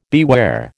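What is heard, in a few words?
"beware", American English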